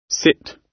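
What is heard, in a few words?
"sit", British English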